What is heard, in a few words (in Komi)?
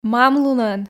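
мам лунӧн, Komi, female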